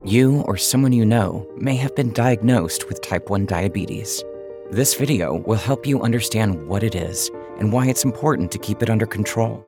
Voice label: VO Compassionate Caring Sincere; Voice Over Read Styles